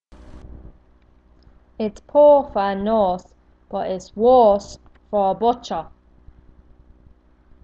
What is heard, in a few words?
Drogheda accent